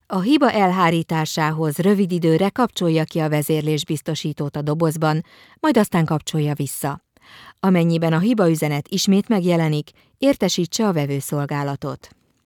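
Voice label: Hungarian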